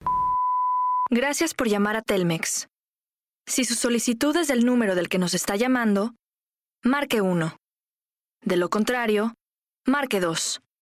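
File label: Latin American Spanish IVR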